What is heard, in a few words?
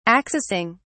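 "accessing", British English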